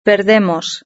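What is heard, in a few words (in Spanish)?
"perdemos", Spanish; verb-conjugator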